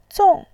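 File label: "zong" Mandarin Chinese, fourth tone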